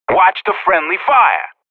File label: Professional Radio bot under fire friendly; Counter-Strike: Global Offensive